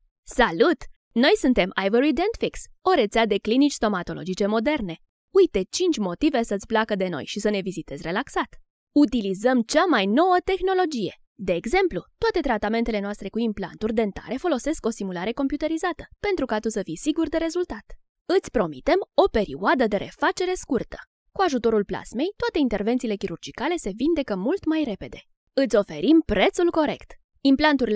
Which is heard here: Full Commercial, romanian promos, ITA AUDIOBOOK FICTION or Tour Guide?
romanian promos